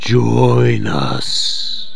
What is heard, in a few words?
join, zombie speech